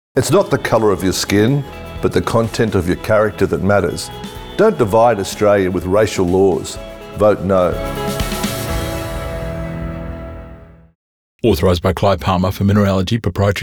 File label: radio